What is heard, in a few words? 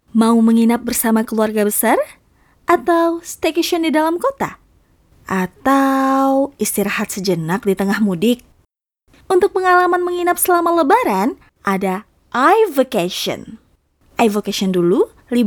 casual, Indonesia, fresh, fun